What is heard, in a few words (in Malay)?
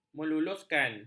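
"meluluskan", Malay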